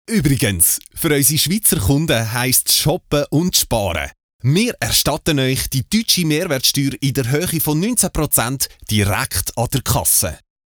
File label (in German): POS Spot für Schweizer Kunden in DE Shop